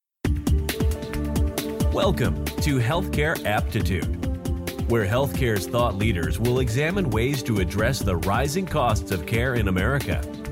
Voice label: Intro Outro voiceover; Healthcare APPtitude